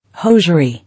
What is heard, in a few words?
"Hosiery", English